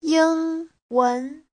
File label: "ying1 wen2", Chinese